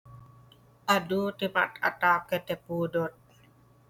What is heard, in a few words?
Ilocano